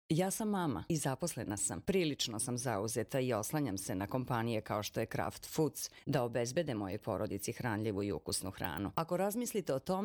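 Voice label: Serbian, female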